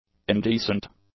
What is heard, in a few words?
"indecent", English; adjectives